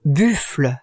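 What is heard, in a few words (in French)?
"buffle" French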